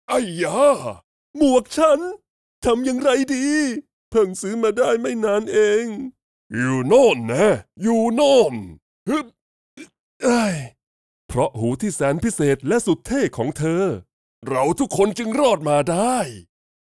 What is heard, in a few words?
Audiobook Male Various Characters